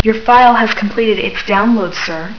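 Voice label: download, Female voice, "You file has completed its' download, Sir."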